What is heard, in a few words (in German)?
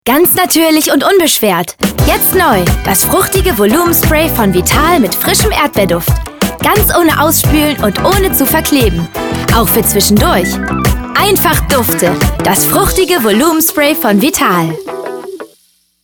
Werbung Shampoo